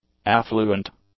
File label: "affluent", English; adjectives